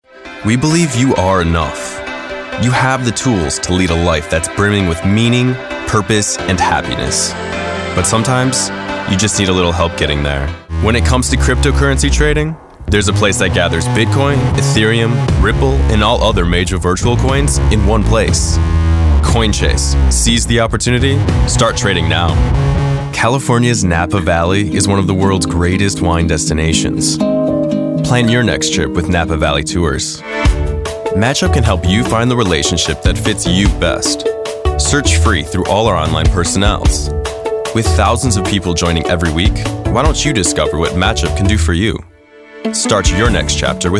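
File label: Commercial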